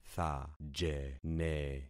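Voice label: "thajene", concatenated syllables; Affixing experiment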